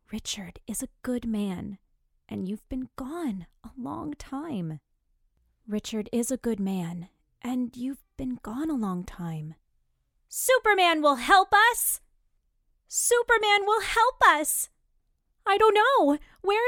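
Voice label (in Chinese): 外籍女声 角色 美式英语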